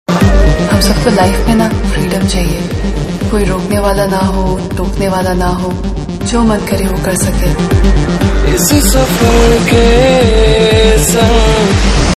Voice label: Dialogue Ringtone; Freedom Chaiye